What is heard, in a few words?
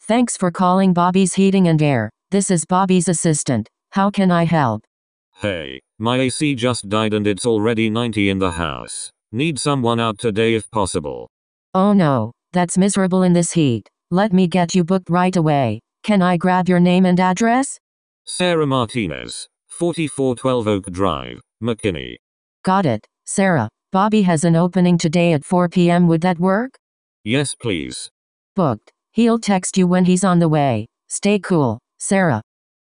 receptionist